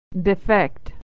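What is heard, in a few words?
"to defect", American English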